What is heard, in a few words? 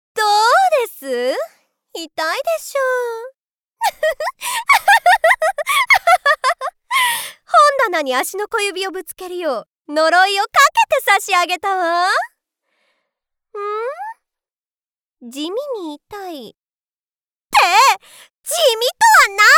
nastylady; 意地悪なお嬢様